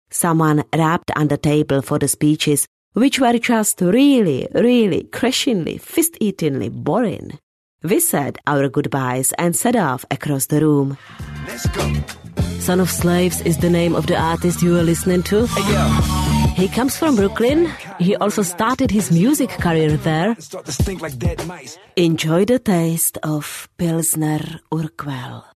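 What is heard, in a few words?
Czech, female, English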